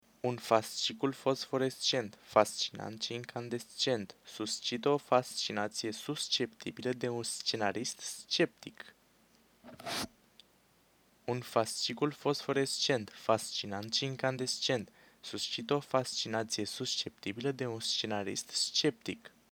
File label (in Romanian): Test microfon HS60 Haptic